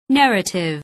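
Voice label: "narrative", English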